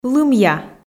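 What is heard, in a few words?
"лымъя" Komi, female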